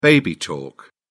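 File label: "baby talk", British English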